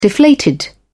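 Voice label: "deflated", British English, dictionary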